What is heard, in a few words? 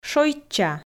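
"шойчча", Komi, female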